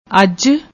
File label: "auj", Punjabi